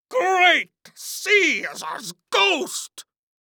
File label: Cartoon Voice; Great Caesar's Ghost; AdobeCC